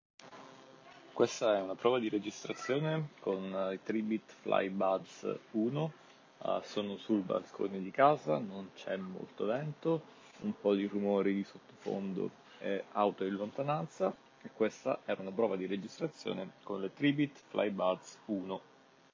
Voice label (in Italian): Registrazione Tribit Flybuds